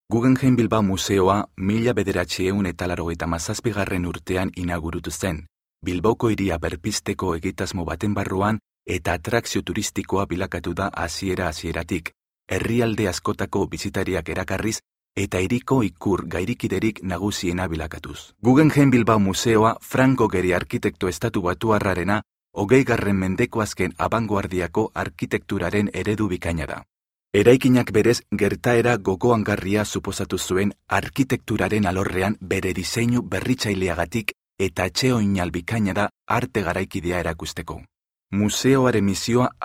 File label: Basque